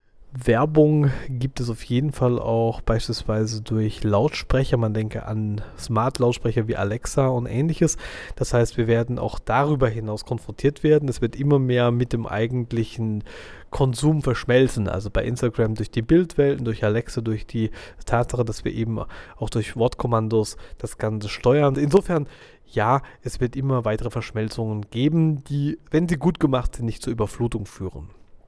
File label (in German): werbung